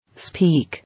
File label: "Speak", English